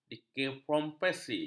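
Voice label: "dekompresi", Malay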